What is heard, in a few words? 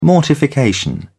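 "mortification", British English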